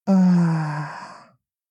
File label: doubt